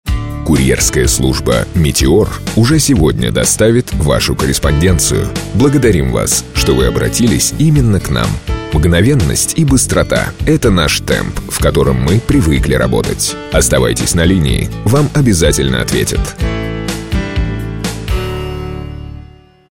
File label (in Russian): golosovoe privetstvie; Meteor; ivrplus